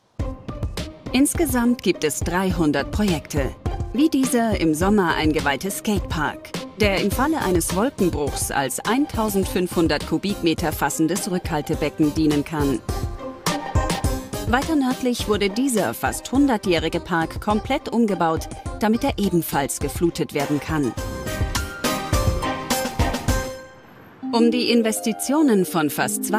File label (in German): Kommentar Dokumagazin Klimakrise off souveraen; Dokumagazin27 ARTE